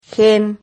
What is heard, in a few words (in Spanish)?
"gen", Silabas